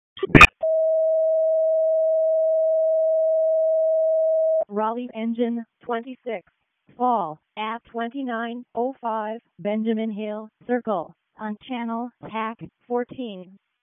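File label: Fire Department Alert, p25; RFD ALERT; wake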